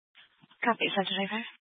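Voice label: Police 1 Dispatch, Scanner audio recording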